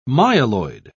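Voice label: "myeloid", British English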